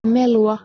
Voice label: "melwa", Proto-Germanic